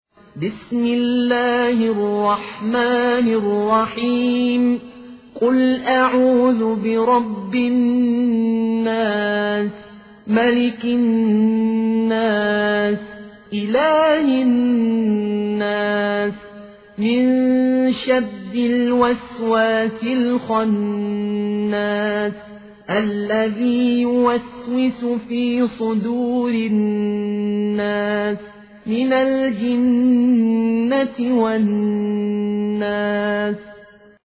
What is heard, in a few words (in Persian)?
سوره ناس حفص عن عاصم